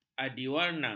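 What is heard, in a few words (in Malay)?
"adiwarna", Malay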